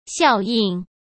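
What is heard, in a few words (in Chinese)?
"效应", Mandarin Chinese; chinese-flashcards; hsk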